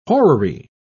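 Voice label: "horary", British English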